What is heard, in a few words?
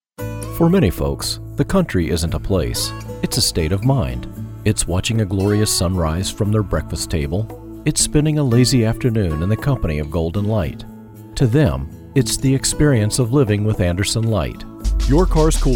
male commercial, english; commercial demo